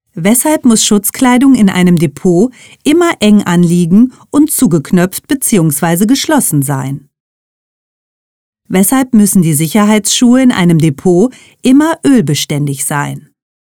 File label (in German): E-Learning Fragen zum Thema Sicherheit